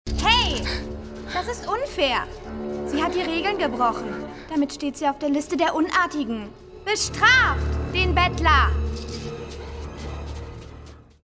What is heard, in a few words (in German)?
Synchron